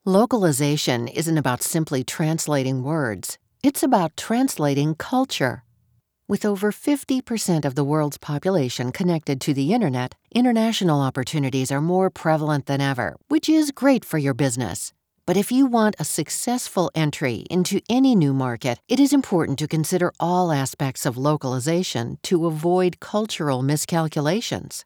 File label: english usa female